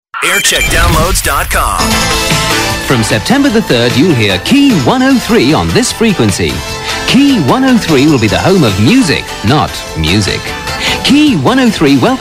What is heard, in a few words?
launch promo; key 103